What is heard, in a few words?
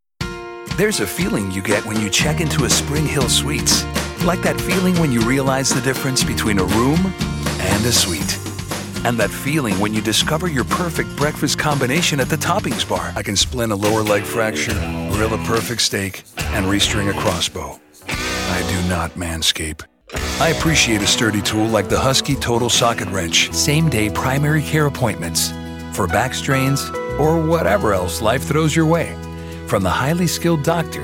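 Spanish US, male, accent English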